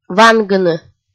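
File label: "вангыны", Komi, female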